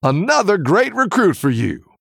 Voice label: Male Human Recruit Medium Minion

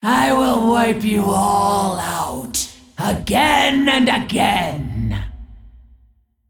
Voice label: Female Dragon Player Loss

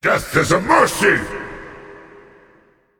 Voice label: Male Demonic Titan Attack

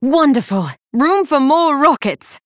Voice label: Female Night Elf

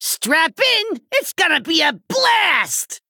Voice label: Male Goblin

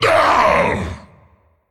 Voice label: Male Demon Death; Prince Malchezaar

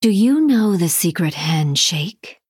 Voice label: Female Human

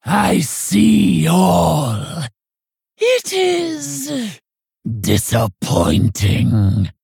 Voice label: Female Orc; Hagatha

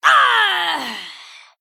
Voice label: Female Human Death

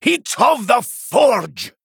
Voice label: Male Dwarf; Magni Bronzebeard; UPGRADE

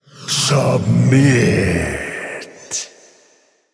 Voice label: Male Spirit Attack

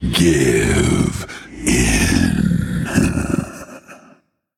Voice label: Male Undead Emote Greetings

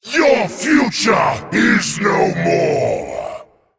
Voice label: male dragon, Play

